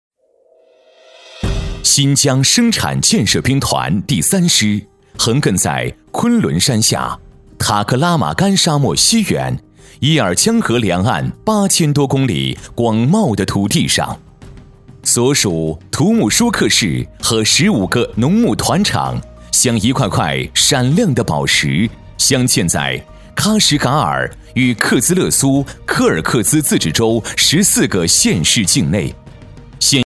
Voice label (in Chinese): 男 专题 年轻干练; 建设兵团